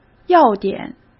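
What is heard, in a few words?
"要点", Mandarin Chinese; chinese flashcards; hsk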